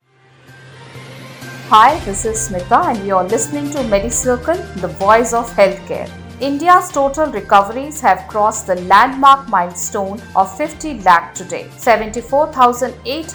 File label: english news